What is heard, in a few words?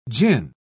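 "jinn", British English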